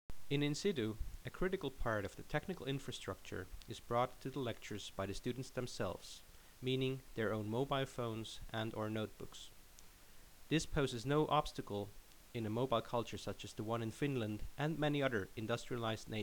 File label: Narration